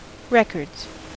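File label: "records", American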